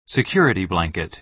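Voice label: "security blanket", American English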